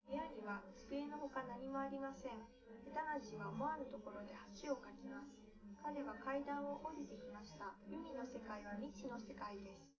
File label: female liverec; SiSEC11; underdetermined